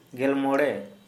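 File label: fifteen, Santali, male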